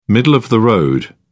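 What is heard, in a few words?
"middle-of-the-road", British English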